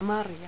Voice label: "marja", Finnish

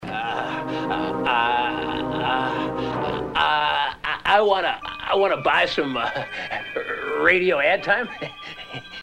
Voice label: radio ad time

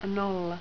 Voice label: "nolla", Finnish

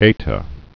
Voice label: eta, greek alphabet

